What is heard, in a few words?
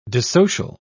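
"dissocial", British English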